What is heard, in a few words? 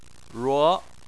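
"ruo" tone 2, Chinese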